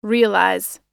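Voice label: "realize", English; oxford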